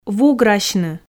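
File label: "вуграсьны", Komi, female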